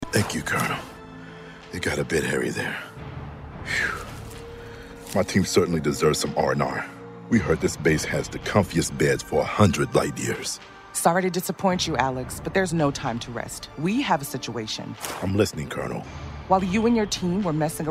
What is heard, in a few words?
Character, Voice Over